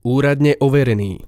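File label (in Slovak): "uradne overeny", Slovak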